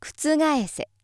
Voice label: female